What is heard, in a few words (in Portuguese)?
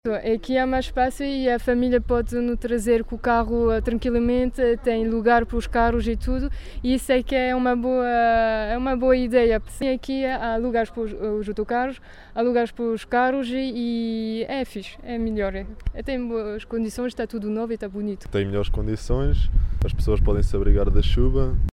vox pop paragem